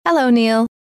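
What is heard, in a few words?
"hello", female; trainer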